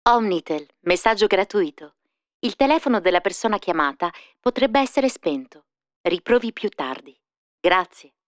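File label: italian interactive voice response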